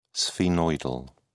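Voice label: "sphenoidal", British English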